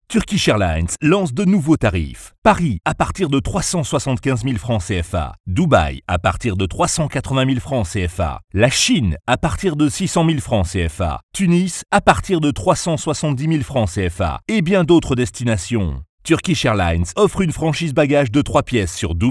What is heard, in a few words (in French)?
voix grave pub radio nationale